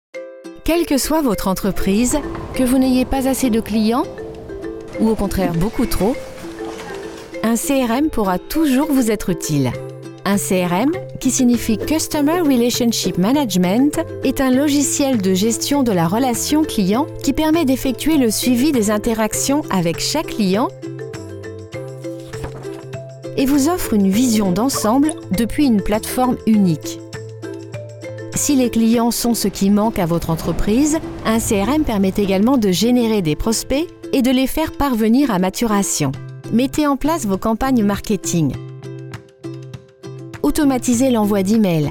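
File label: French, Freundlich; Fremdtake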